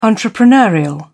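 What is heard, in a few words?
"entrepreneurial", English, British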